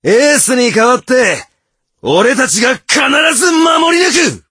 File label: voice, dubbing